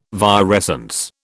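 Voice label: "virescence", British English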